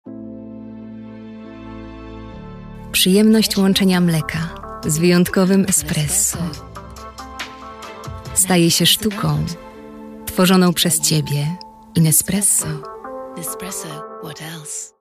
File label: Female VO Commercial